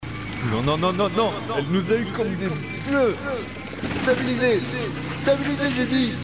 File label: Reverb Delay Ambiance Bruitages